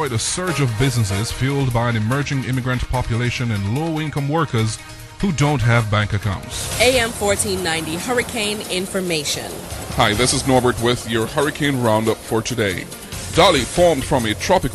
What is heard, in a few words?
News Weather